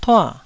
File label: "tua4", taiwanese